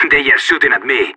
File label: radio need backup, separatist; player vo